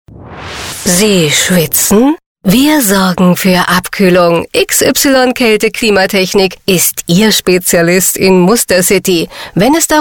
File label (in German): schmunzelnd genussvoll